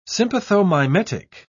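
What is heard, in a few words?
"sympathomimetic", English, British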